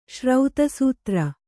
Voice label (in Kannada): "ಶ್ರೌತಸೂತ್ರ", kannada